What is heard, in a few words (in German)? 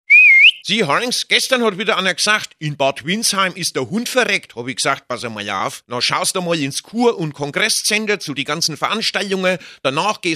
Radio Spots; Bad Windsheim